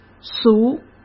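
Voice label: "俗", Mandarin Chinese; chinese-flashcards; hsk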